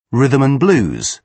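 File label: "rhythm and blues", British English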